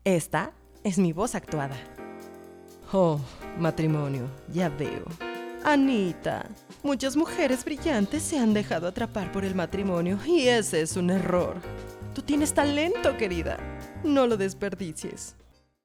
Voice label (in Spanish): Actuada, locutora